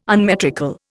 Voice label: "unmetrical", Indian